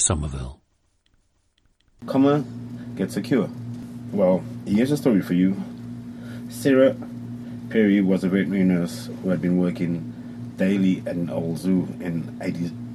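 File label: zimbabwe, International Dialects of English Archive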